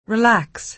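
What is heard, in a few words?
"relax", American English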